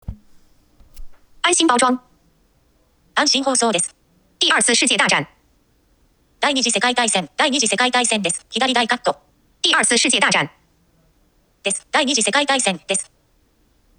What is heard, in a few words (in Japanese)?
Google Doc 読上げ